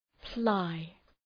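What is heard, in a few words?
"ply", English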